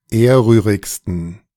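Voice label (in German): "ehrrührigsten", German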